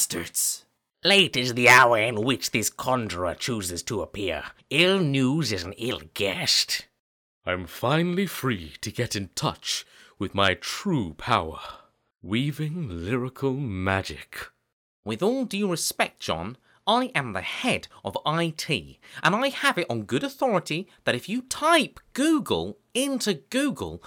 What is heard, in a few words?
Character Reel